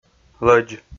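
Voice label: "лӧдз", Komi, male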